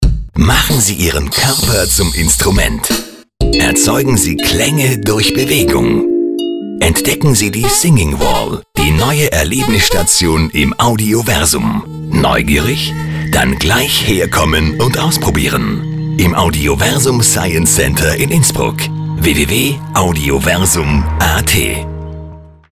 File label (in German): Radiospot; AUDIOVERSUM; Singing Wall